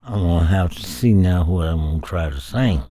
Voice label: talk, spoken, blues soul; Spectrasonics Vocal Planet

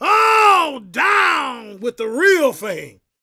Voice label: PREACHIN, Gospel, PREACHER

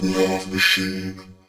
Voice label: "Love Machine", vox; Zero-G; Soundclash Nu Dance Electro; Acidized

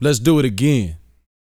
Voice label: BOAST MF; Vocal Planet; R&B Dance

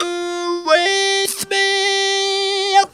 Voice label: "with me", Funky Vocals, girls; USB Soundscan vol.18; AKAI; TB GIRLS

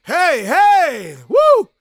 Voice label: SHOUTS, Gospel, PREACHER; ILIO; Vocal Planet

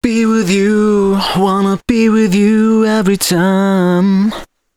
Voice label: male c 130, short hooks, Dance Voice; Mutekki Media; Dance Ultra Voice VOL-1

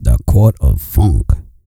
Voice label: E SPEECH, Vocal Planet R&B Dance

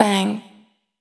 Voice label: "Bang" Low, Vocal Samples, Words

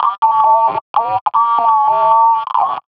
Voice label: what, Vocal Loops; Transmission-X

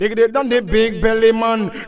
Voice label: GUY, Dub Vox; Hip Hop Nation

